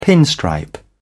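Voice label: "pinstripe", British English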